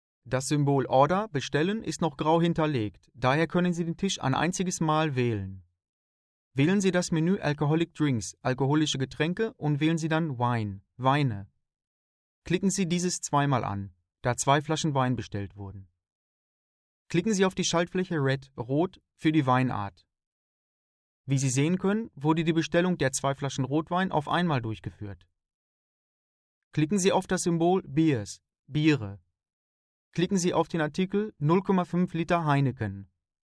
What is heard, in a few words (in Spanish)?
curso formacion mascota aleman; Employees course recording in german language